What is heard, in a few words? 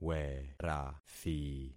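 "werathi", concatenated syllables; Affixing experiment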